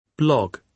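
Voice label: "blog", British English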